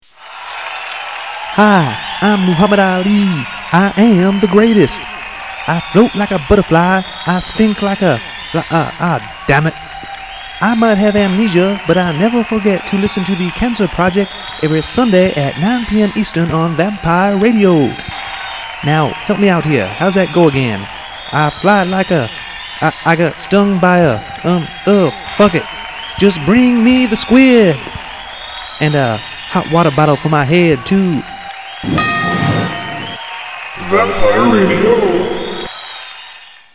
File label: Commercial